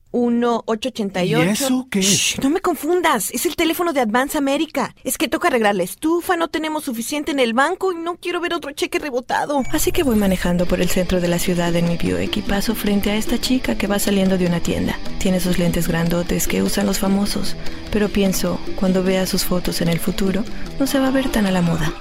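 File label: Spanish commercial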